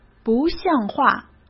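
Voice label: "不像话", Mandarin Chinese; hsk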